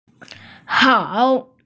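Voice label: "hao3", Mandarin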